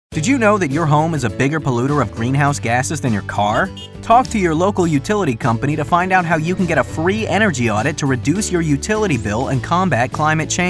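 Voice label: Commercial, New Zealand English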